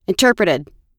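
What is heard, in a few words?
"interpreted", US English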